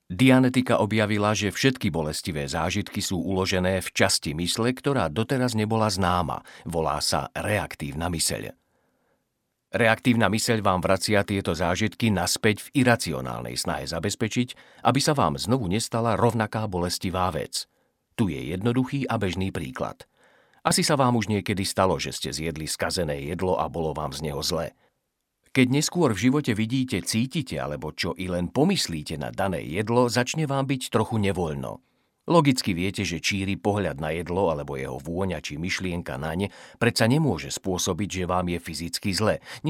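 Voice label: Male Slovak documentary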